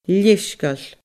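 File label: "leisgeul", Scottish Gaelic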